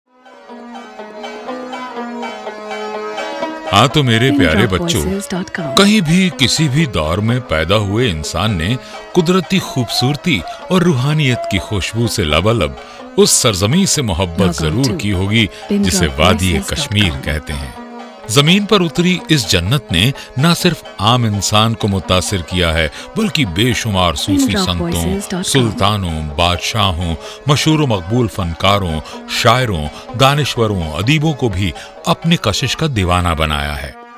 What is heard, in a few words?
Urdu